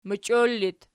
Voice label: "mochior", Archi